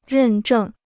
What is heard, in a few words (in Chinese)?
"认证", Chinese